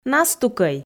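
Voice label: "настукӧй", Komi, female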